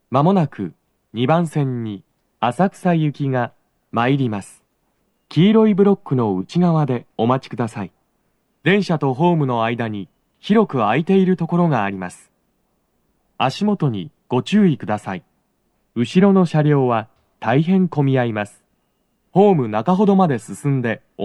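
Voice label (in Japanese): 接近放送, 浅草行き(混雑付帯), 男声, BOSE薄型での収録です。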